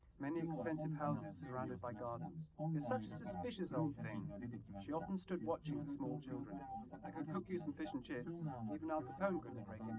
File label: male liverec; SiSEC10; underdetermined